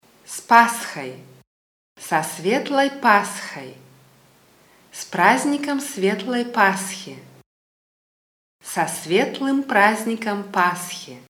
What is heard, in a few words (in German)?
frohe ostern russisch gratulieren